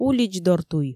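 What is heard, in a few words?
"уличдортуй" Komi, female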